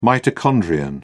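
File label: "mitochondrion", British English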